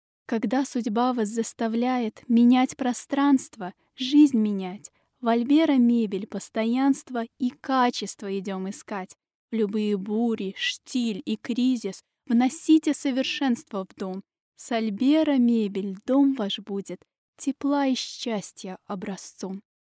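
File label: Russian Russia female narrative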